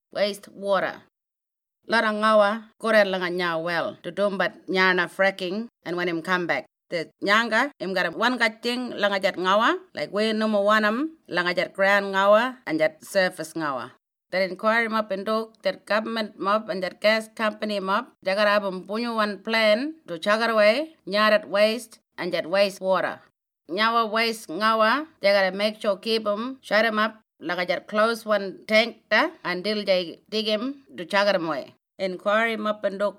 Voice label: Ngarinyman; WASTE WATER